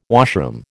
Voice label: "washroom", American